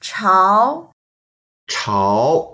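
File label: "chao2", Mandarin Chinese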